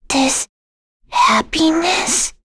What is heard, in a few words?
Vox Victory, en; Jane; kingsraid; voices heroes en